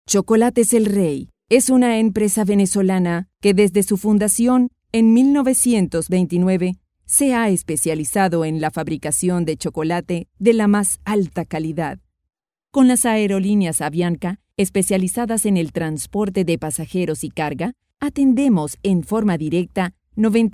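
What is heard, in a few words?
Spanish Latin America female narrative; spanish americas voice over talent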